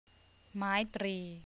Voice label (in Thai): "maitree", Thai; วรรณยุกต์